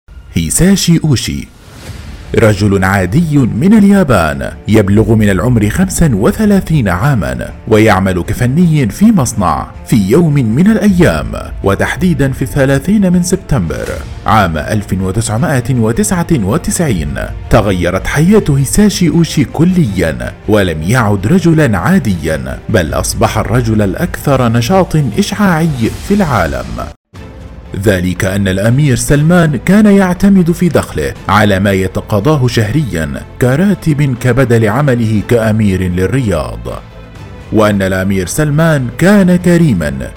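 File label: Arabic, male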